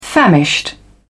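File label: "famished", British English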